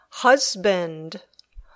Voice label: "husband", English